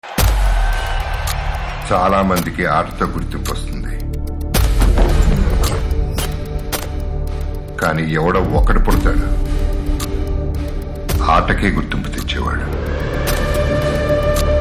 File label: Teaser Dialogue Telugu Ringtone; Lakshya